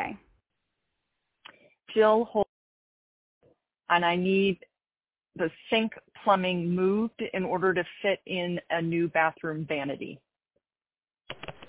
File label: Call Rescheduling an Appointment